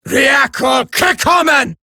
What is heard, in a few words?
Player Spotted, Female; Warframe; Eximus Grineer Female; Battle Barks